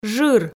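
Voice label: "жыр", Komi, female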